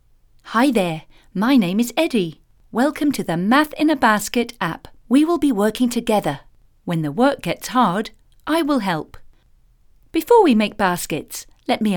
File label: Education Voiceover